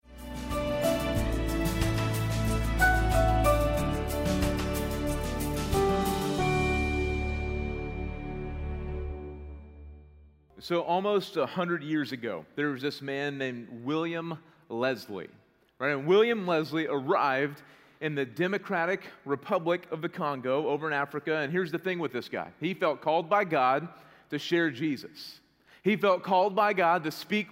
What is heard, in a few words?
Sermon English